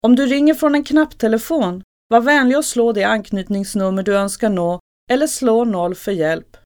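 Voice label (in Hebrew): Swedish, קריינות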